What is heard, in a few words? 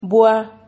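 "bua", Spanish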